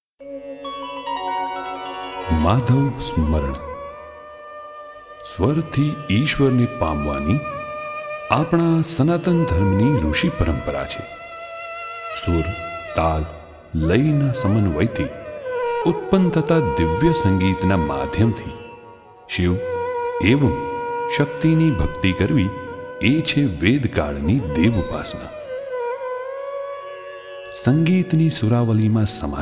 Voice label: spritual guj

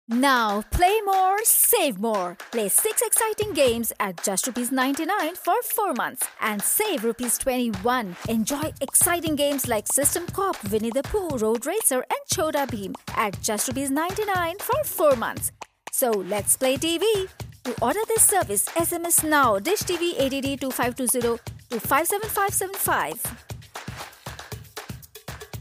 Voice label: English TVC; dishtv

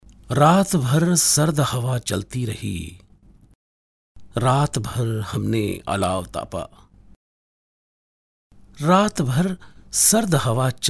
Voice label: poetic hindi

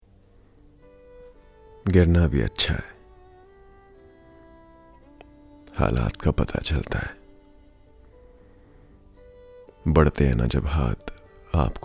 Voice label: HINDI

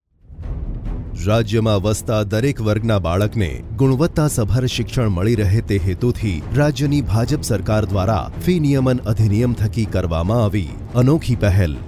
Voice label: Gujarati for Canvassing; BJP

